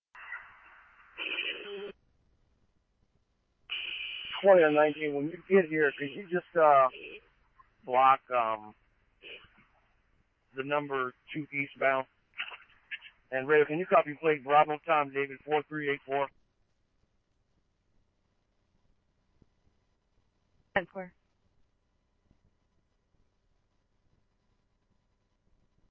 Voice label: scanner, Scottsdale Police